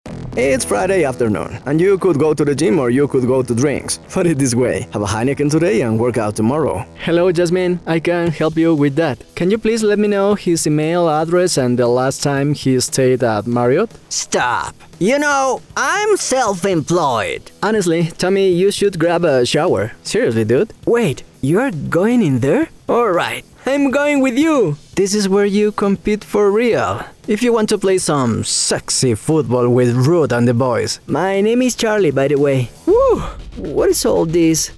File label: English Latino